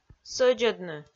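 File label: "сӧдзӧдны", Komi, female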